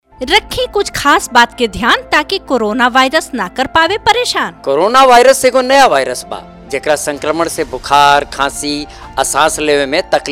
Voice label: Bhojpuri Radio Spot General Awareness; Covid19